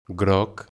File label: "yellow", Catalan